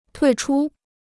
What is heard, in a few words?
"退出", Chinese